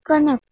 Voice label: "kanak", Hindi